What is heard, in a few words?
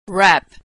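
"rap", American English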